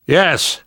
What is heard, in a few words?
yes, namvet, survivor voice; Left 4 Dead 2; left4dead2 dlc2